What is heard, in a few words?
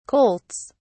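"colts", British English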